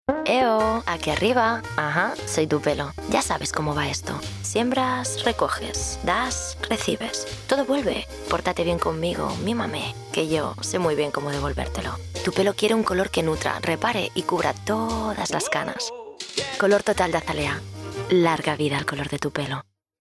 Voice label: female spanish hair comercial